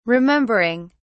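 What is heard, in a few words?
"remembering", British English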